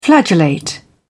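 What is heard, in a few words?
"flagellate", British English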